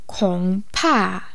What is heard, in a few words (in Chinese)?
"kong3pa4", pinyin